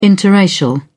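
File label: "biracial", British English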